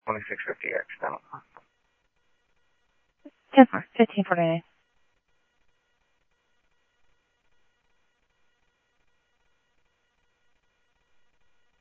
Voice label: Mesa Simulcast, MESA PD, scanner audio

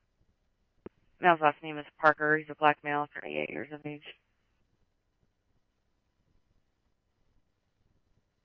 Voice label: Chandler Police, scanner

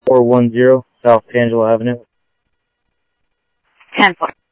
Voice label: Sheriff, scanner audio

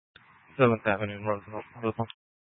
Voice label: Phx PD Dispatch, scanner; C- Chandler

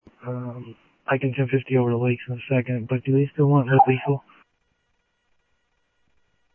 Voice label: South Scottsdale Sheriff, scanner audio

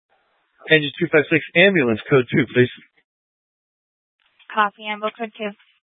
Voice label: Fire Departments, scanner

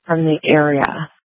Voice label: Sheriff, scanner; Shrf Lakes

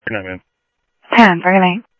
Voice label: scanner audio, Sheriff; South Scottsdale; MCSO - EAST; Sheriff-EastCnty

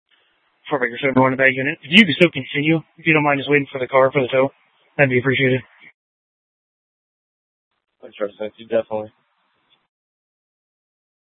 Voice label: Dispatch, scanner; TOPAZ Regional Wireless Cooperative (TRWC) - Mesa Simulcast; Mesa PD; 4 Superstition Dispatch

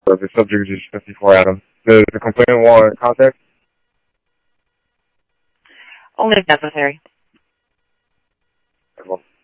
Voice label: Tempe Police, scanner

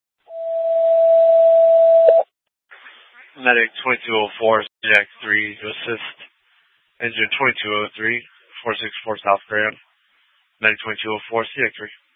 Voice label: FD Dpts VHF 156.240 Patch

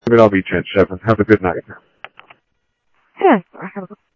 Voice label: Sheriff Central, scanner audio trunk

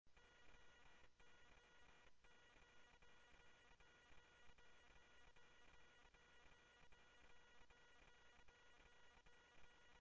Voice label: Scottsdale Police, scanner audio; H-Scottsdale; SPD - PD2

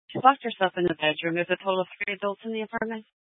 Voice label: Police Dispatch, scanner; Peoria Police; Simulcast B- Phoenix Fire and Public Works

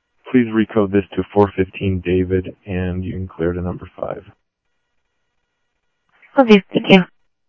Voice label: scanner audio trunk

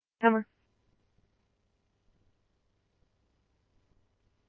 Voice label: scanner, Scottsdale Police

